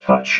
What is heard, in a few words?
"touch", robot